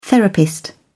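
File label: "therapist", British English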